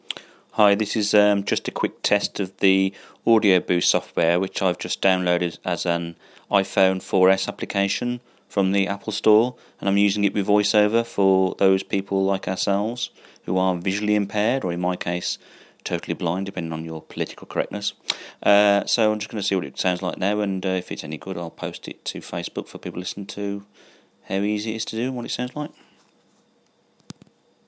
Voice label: testing recording of audio using voice over, hi this is a te; blue iphone application